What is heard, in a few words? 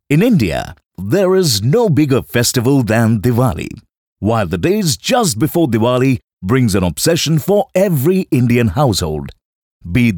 ENGLISH GALA VO; T3